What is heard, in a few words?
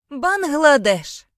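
"bangladesh", Ukrainian; gcompris; geography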